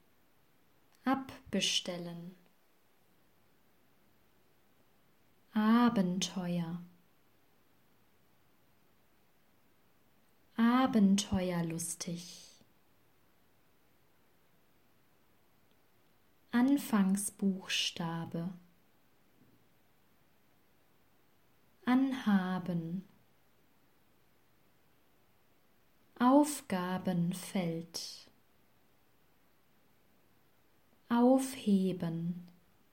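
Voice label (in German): Wörter mit E ebenfalls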